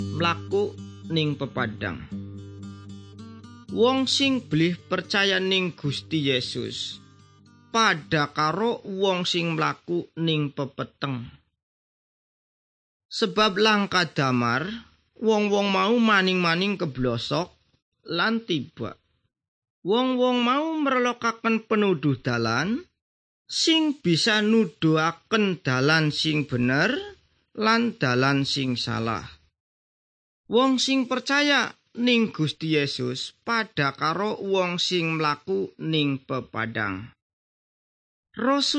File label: good news jawa indramayu, javanese bible